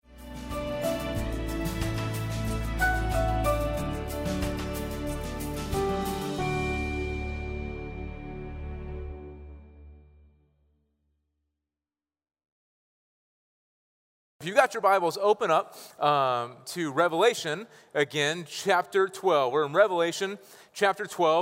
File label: SERMON; WED NIGHT